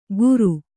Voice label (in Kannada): "ಗುರು", Kannada